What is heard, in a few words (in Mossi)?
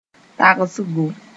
"taogsgo", moore